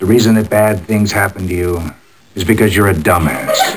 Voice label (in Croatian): glas, PCM 44 Khz, 16bit, stereo (CD kvaliteta)